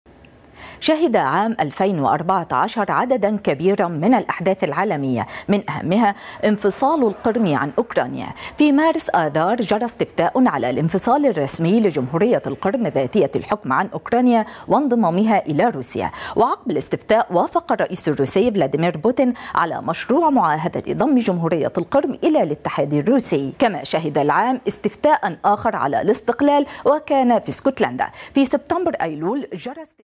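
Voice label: Arabic Standard, female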